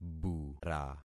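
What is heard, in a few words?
"bura", concatenated syllables; Affixing experiment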